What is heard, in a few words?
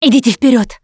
Emphatic Go Quiet, russian; Producer